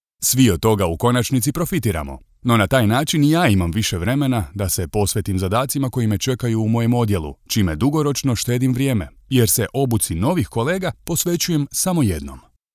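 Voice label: Croatian, male, narration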